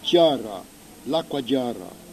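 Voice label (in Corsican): "acqua", Corsican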